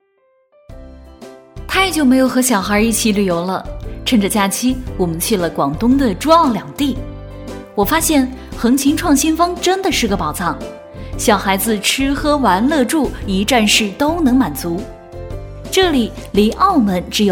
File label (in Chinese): 女-介绍旅游路线-素人口语化; 旅游风光景区配音